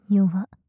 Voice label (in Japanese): 極近・左, ASMR; マゾオスのあなたに敗北射精の快楽を叩き込む音声